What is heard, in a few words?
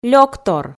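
"лёктор", Komi, female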